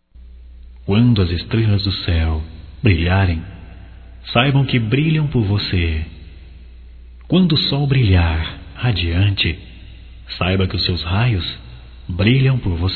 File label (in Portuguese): Mensagem Evangelica Masculino, Só Voz; Telemensagens; Telemensagens 2017